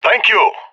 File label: thankful; Counter Strike; CS:GO; leet epic